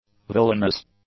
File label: "villainous", English; adjectives